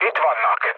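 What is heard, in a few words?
enemy detected, mood low, Hungarian; War Thunder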